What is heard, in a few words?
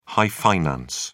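"high finance", English, British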